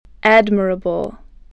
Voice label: "admirable", English voice

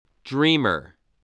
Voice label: "dreamer", English

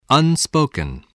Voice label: "unspoken", English spelling voice

